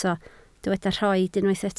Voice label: "rhoid", Welsh